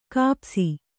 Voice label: "ಖಪ್ಪಸು", Kannada

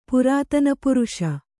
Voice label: "ಪುರಾತನಪುರುಷ", Kannada

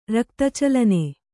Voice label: "ರಕ್ತಚಲನೆ", Kannada

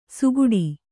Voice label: "ಸುಗುಡಿ", Kannada

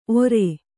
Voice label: "ಓರೆ", kannada

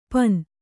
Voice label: "ಪನ್", Kannada

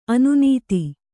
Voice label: "ಅನ್ನದ", Kannada

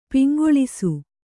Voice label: "ಪೊಂಗೆಲಸ", Kannada

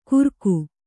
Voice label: "ಕುರಕು", Kannada

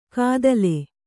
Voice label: "ಕದಲೆ", Kannada

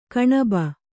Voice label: "ಕೊಣಬು", kannada

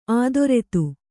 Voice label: "ಅಧರಿತ", Kannada

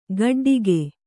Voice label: "ಗೋಟಡಕೆ", kannada

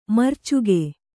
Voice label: "ಮರೀಚಿಕೆ", Kannada

